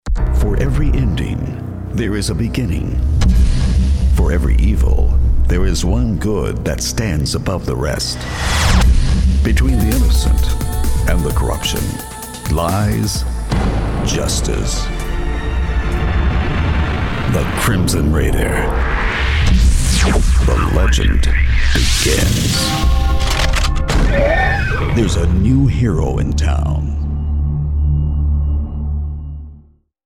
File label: Movie Trailer